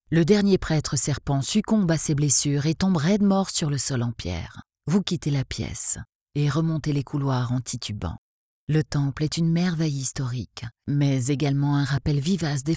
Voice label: french video games

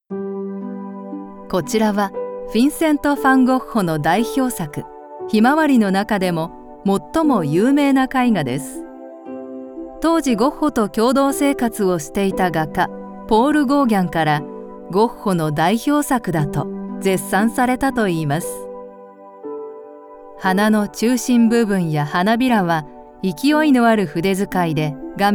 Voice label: japanese audio guides

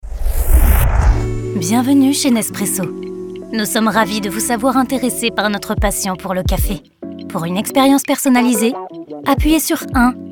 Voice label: french ivr

